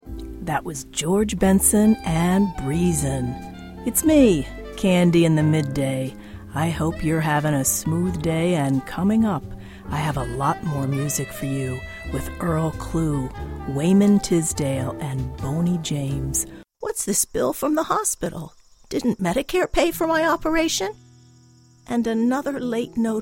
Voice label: character